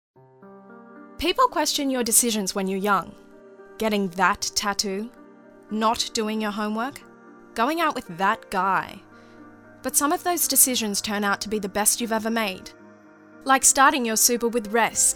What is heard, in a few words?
Voice Over